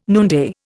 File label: "noonday", Indian